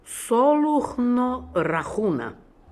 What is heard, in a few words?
"Soloohnorahoona", pronounciations; Fourth Way; Beelzebub